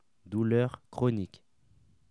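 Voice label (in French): "douleur chronique", French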